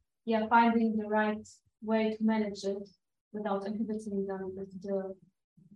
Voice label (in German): Standardmikro kurz